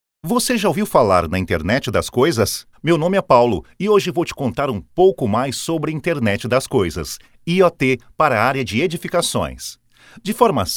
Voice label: portuguese brazilian e-learning